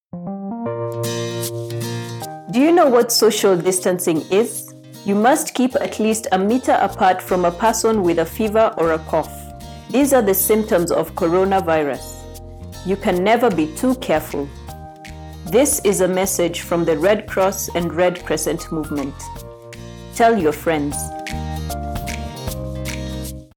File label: Covid19 Radio Spots ENG Female